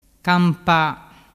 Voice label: "campa", dialettu

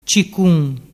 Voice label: "cicun", dialettu

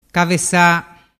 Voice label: "cavesa", dialettu

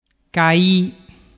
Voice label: "cai", dialettu

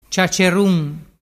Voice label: "ciaccerun", dialettu

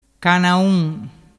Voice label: "canaun", dialettu

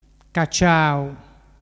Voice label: "caciau", dialettu

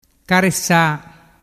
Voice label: "caresa", dialettu

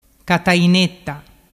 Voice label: "catainetta", dialettu

